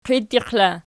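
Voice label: "qiittiq" inessive, Archi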